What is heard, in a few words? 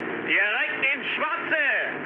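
German bomb success mood low, speech; War Thunder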